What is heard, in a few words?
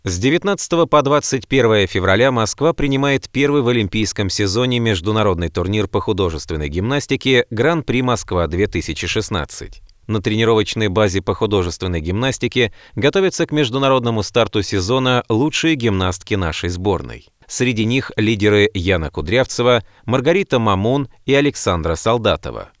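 tv programa dinamika, Russian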